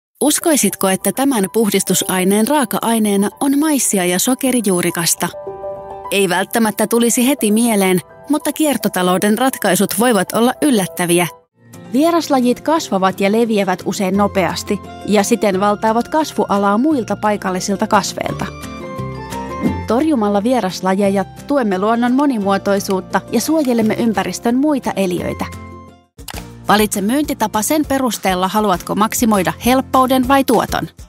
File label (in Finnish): finnish female voice finnish explainer